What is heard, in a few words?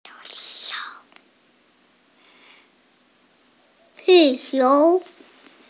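"qi4qiu2", Mandarin Chinese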